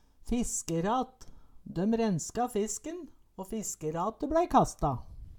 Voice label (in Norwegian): "fiskerat", Numedalsmål; Døm renska fisken å fiskerate blei kasta.